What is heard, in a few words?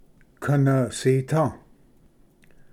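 "kanvsita", Cherokee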